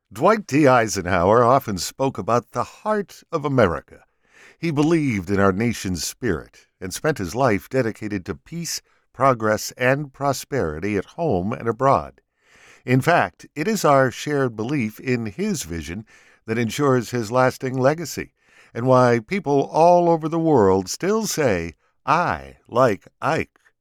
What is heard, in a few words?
Historical Narration